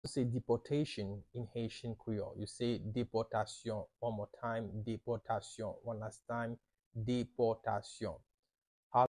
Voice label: Deportation in Haitian Creole – Depotasyon pronunciation by a native Haitian teacher